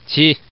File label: Man